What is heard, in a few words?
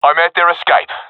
guarding escape zone, professional; vo; Counter Strike Model Pack; CS:GO